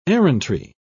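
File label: "errantry", British English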